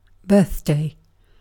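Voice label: "birthday", British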